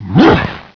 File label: pain, hgrunt; svencoop; cracklife